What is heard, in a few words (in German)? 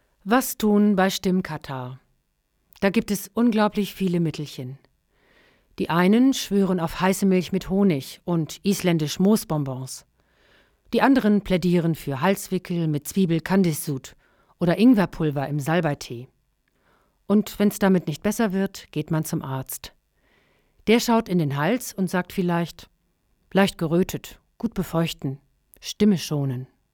stimmkatarrh, sprecher